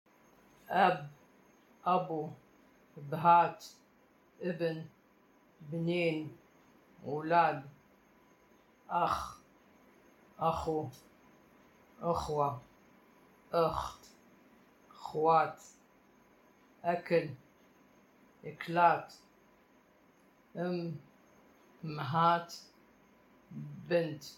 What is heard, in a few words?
Baghdadi Judeo-Arabic; Audio recording 2.2 to accompany section on Lesson 2: Getting to Know Someone; Vocabulary